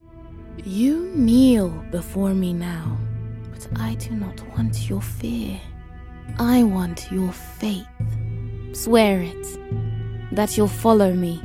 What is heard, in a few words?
Seductress